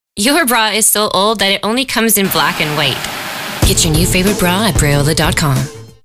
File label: social media ad